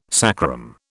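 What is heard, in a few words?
"sacrum", British English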